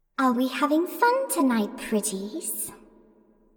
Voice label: Having Fun Pretties Creepy Witch Voice Line Halloween